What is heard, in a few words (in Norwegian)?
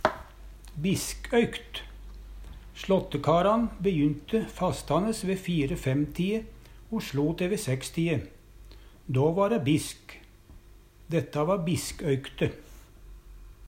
"biskøykt", Numedalsmål